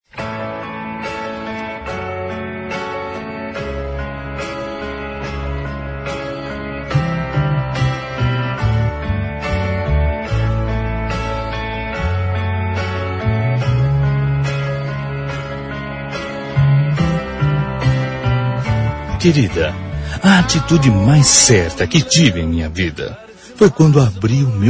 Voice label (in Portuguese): Romatica - Voz Masc, Telemensagens